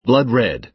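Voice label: "blood-red", American English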